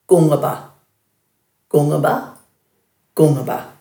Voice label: "gungaba", Dhurga